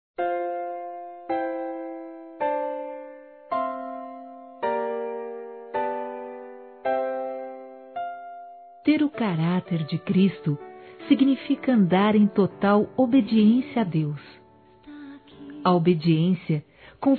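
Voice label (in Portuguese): ANIVERSARIO E HOMENAGEM AO PASTOR, feminino; Telemensagens; Telemensagens 2017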